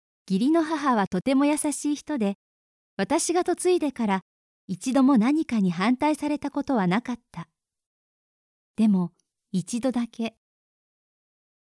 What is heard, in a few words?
female